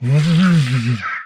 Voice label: humanoid portrait male; Stellaris; humanoids